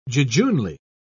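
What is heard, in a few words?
"jejunely", British English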